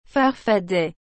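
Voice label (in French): "farfadet", French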